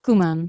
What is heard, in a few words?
"cuman" Anglo Saxon